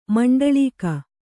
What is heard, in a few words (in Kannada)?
"ಮಂಡಳೀಕ", kannada